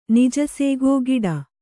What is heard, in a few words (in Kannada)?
"ನಿಜಸೇಗೋ ಗಿಡ", Kannada